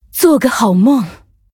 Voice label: Female Night Elf Greetings; zh